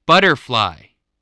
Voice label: "butterfly", spelling